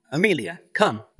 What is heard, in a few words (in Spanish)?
Voice Acting es M